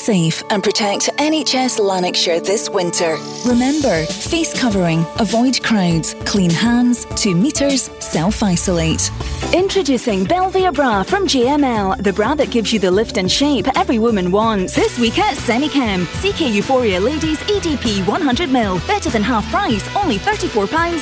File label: scottish commercial